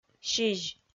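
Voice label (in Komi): "сизь", Komi, female; kpv audio dict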